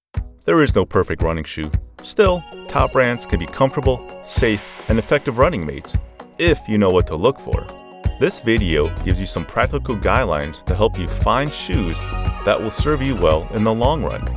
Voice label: eLearning